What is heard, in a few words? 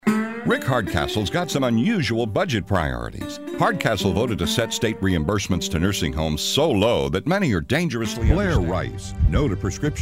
Political, voiceover